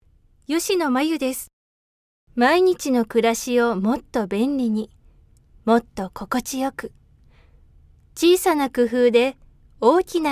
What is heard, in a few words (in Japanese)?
CMナレーション(音楽)